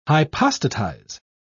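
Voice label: "hypostatize", British English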